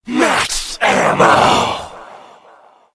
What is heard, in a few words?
demonic announcer max ammo